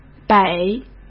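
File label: "北", Mandarin; chinese-flashcards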